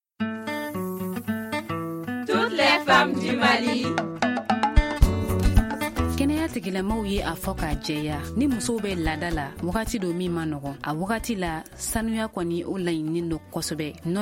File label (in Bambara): magazine bamanankan